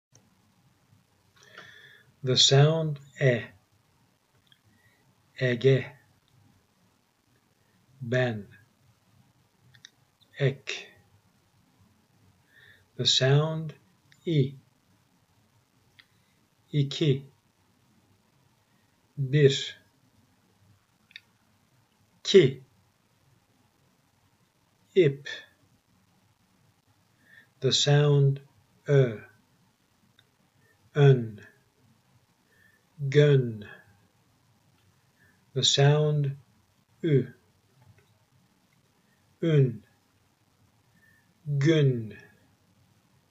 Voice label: Vowels Speaking Exercise, Turkish; Turkish Vowels pronunciations; Front Vowels: produced closer to your teeth, in the “front” of your mouth